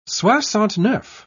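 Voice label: "soixante-neuf", English, British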